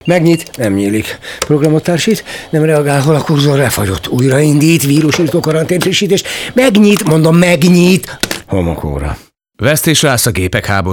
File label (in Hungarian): hirdetes